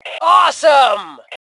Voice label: Compliment Awesome, Female; T2 Female 04